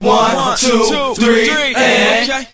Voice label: 1 2 3 and group of men; numbers and letters